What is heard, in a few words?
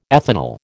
"ethanal", American